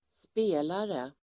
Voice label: "spelare", Swedish

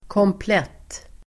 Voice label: "komplett", Swedish

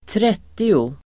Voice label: "trettio", Swedish

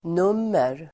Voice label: "nummer", Swedish

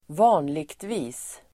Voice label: "vanligtvis", Swedish

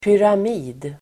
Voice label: "pyramid", Swedish

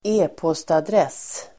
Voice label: "e-postadress", Swedish

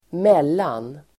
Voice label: "mellan", Swedish

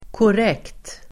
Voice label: "korrekt", Swedish

